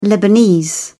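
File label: "lebanese", British English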